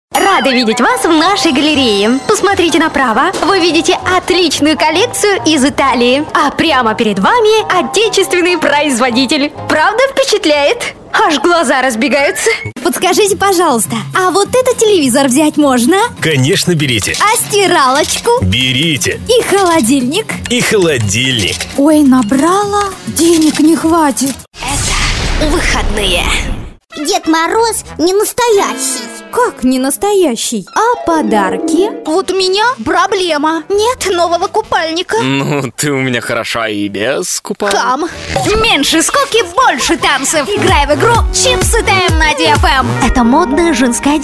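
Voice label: Russian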